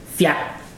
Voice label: spit out, ibibio

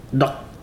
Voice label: Ibibio coffee dregs

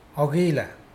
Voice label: hide, Mokilese

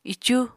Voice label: "icu", Apatani

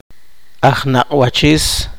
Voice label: poqomchi; oculista

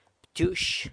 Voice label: "btyux", San Lucas Quiavini; tomato

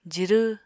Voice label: "jirw", Apatani

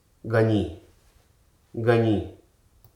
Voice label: "item", Hruso

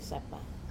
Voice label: "sapa" shell jewlery, Wamesa

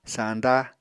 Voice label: "sañda", apatani

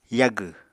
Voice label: "yagw", Apatani

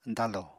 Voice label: "dañlò", Apatani

